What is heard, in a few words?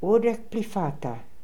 "Urdekhplifata", pronounciations; Fourth Way; Beelzebub